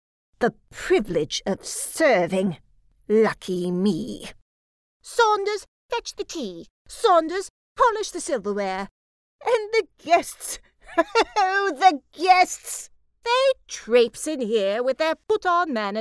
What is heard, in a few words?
Character Wily Servant British RP